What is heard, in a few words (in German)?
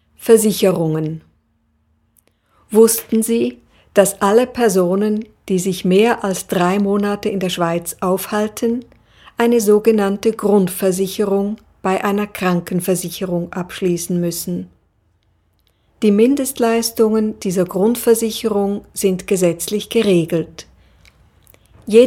deutsch